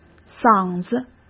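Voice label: "嗓子", Mandarin Chinese; hsk; chinese flashcards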